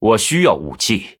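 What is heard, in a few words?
Male, Chinese; Blood Elf; ERROR NEED WEAPON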